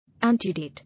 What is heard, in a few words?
"Antedate", English